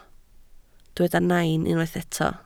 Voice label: "nain", Welsh